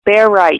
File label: Bear Right, American English, female